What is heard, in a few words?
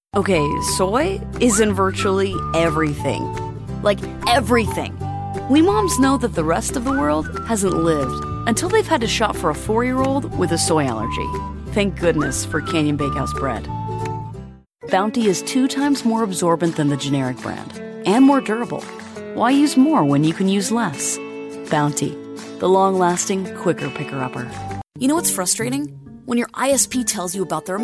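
female, American English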